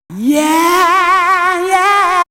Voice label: Female, Voice